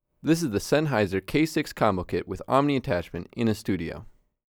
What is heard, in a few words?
K6 with omni attachment